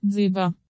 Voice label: dzyga pronounce